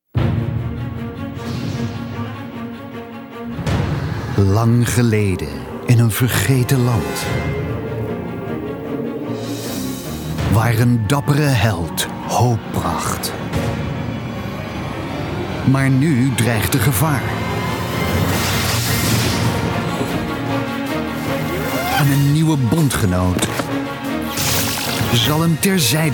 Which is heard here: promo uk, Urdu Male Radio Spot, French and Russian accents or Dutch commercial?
Dutch commercial